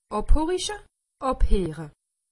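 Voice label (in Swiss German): "abheere", Alsatian; medecine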